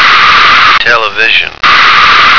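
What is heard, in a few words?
"television", American English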